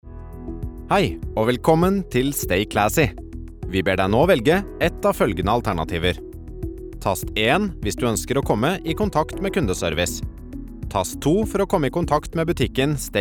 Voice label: Norwegian IVR